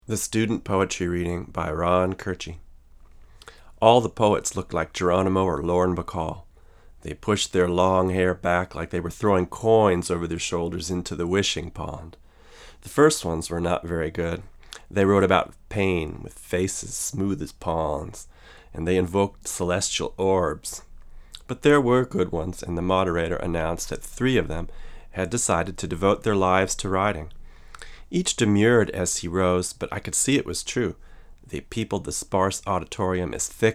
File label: VO, Neumann KM88; Voice English / German / Latin; cardioid